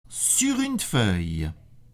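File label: "sur une feuille", French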